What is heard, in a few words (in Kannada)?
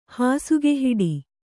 "ಹಾಸುಗೆಹಿಡಿ", Kannada